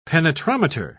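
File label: "penetrometer", English, British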